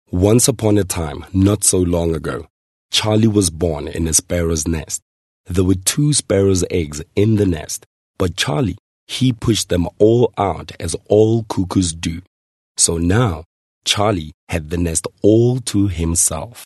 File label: English Narrator